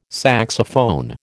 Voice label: "saxophone", American